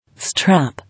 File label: "Strap", English